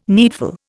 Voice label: "needful", Indian